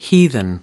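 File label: "heathen", American English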